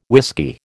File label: "whisky", American